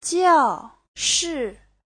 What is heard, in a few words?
"jiao4shi4", chinese; Giáo trình Hán ngữ